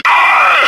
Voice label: hurt med; cloaker